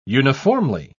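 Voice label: "uniformly", British English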